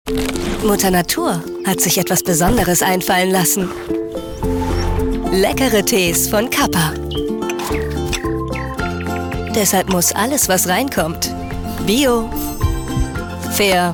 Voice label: TV Spot; Cupper